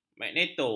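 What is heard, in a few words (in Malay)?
"magneto", Malay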